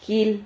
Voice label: "jil", Silabas

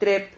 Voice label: "trep", Silabas

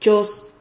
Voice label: "chos", Silabas

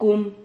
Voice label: "cum", Silabas

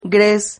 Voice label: "gres", Silabas

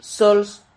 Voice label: "sols", silabas

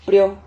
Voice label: "prio", Silabas

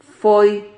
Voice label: "foi", Silabas

